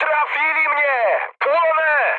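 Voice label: Polish on fire mood high; War Thunder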